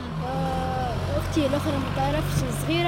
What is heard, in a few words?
woman in cafe